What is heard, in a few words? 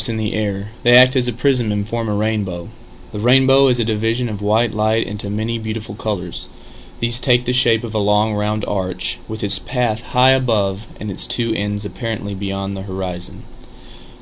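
arkansas, International Dialects of English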